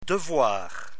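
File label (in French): "devoir" French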